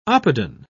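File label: "oppidan", British English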